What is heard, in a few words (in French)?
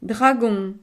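"dragon", French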